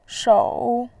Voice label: "shou3", voice